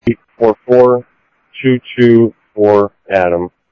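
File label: Sheriff Lake Patrol, scanner audio trunk; MCSO Lakes 1; ThompsonPeakScot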